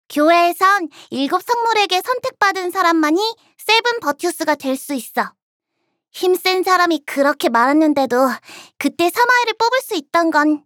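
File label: voice korean; Lisbell; Baonu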